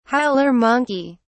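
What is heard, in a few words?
"howler monkey", British English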